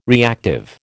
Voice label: "reactive", American

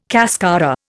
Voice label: "cascara", Indian

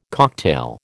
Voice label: "cocktail", American

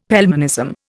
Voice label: "Pelmanism", Indian; Dictionary

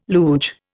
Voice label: "luge", Indian

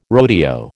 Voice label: "rodeo", American

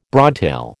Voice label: "broadtail", American

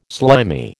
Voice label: "slimy", American

